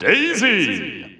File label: English Announcer; SSBU